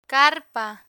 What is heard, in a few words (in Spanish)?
"carpa", Spanish